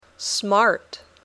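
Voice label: "smart", English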